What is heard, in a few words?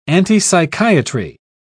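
"antipsychiatry", British English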